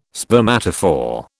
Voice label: "spermatophore", British

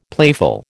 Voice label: "playful", American

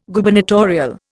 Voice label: "Gubernatorial", Indian

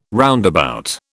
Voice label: "roundabout", British

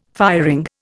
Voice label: "firing", Indian

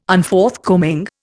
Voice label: "Unforthcoming", Indian

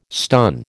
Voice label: "stun", American

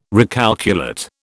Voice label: "recalculate", British

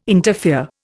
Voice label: "interfere", Indian

